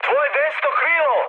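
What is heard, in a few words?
Serbian right wing mood high; War Thunder; speech srb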